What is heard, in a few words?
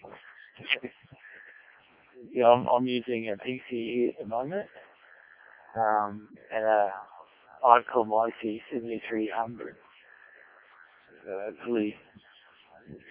decoded speech, 7177KHz